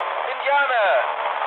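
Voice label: German enemy detected mood low, speech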